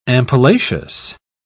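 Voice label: "ampullaceous", British English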